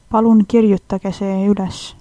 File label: write, estonian